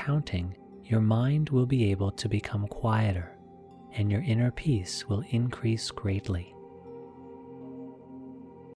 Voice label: Breath Awareness Meditation